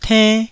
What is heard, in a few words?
"tenn1", taiwanese Syllables